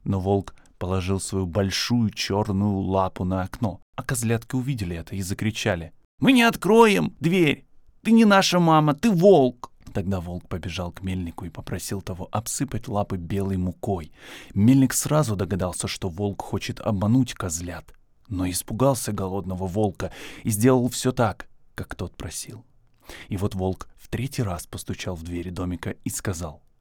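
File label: Russian, male, fairytale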